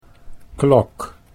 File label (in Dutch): "klok", Dutch